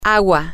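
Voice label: water, Spanish, female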